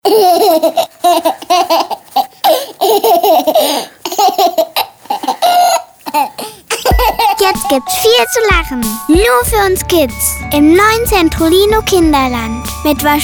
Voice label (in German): Hoerfunkspot; CentrO Centrolino